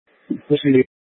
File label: Light Rail Emergency, scanner